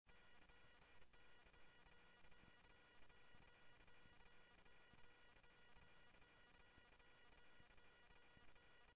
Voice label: Tempe Police, scanner; SimulcastF-Tempe; TEMPE PD A02